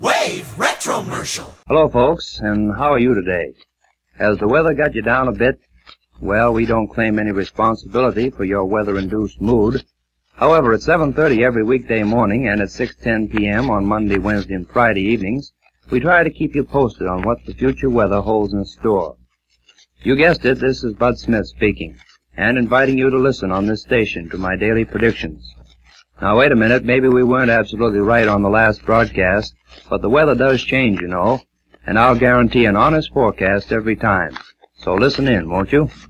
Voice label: Retromercial